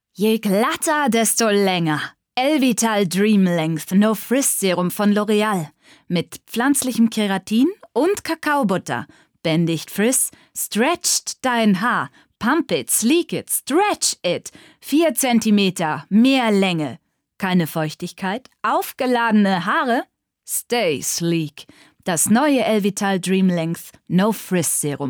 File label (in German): Werbung; LOreal